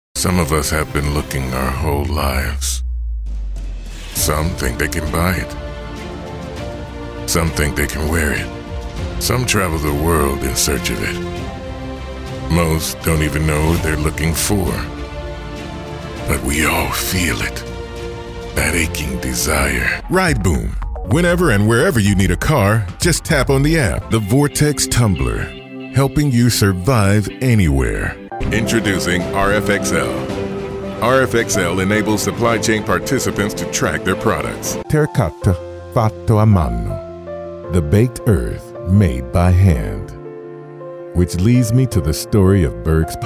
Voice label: Corporate